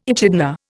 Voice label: "echidna", Indian

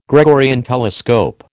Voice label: "Gregorian telescope", American

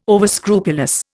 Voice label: "overscrupulous", Indian

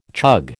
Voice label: "chug", American

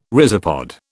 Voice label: "rhizopod", British

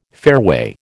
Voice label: "fairway", American

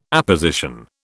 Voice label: "apposition", British

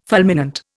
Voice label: "fulminant", Indian

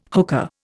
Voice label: "hookah", Indian

